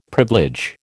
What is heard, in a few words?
"privilege", American